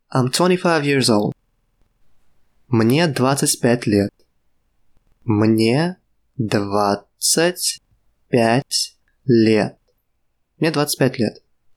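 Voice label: Russian, Im 25 years old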